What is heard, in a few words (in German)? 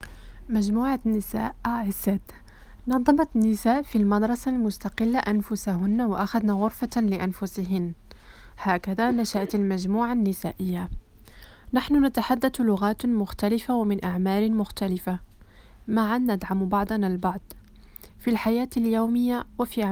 arabisch